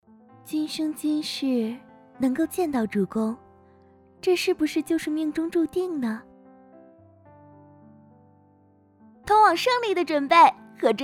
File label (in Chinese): 游戏角色音样音多声线